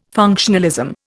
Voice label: "functionalism", Indian